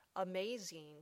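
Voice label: "amazing", English word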